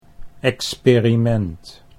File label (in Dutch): "experiment", Dutch